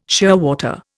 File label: "shearwater", Indian